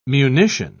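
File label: "munition", British English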